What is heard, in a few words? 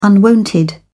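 "unwonted", English, British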